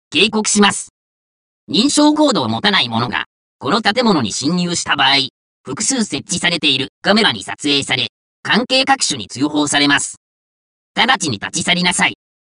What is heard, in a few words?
Warning Voice